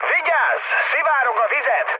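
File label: Hungarian damaged water mood med, speech; War Thunder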